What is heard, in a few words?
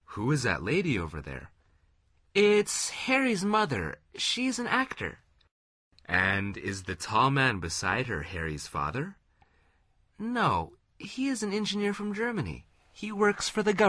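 dialog, Conversación en Ingles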